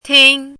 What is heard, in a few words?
"ting1", chinese voice